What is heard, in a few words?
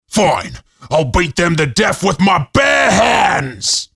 battlecry; Saxton Hale